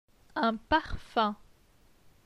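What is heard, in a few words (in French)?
"Un parfum", French; phonetique; les voyelles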